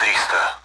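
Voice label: Speech Sound Russian Common AWACS Numbers; DCS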